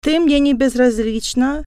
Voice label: i love you female, russian